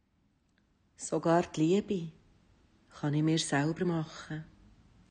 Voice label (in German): Berndütsch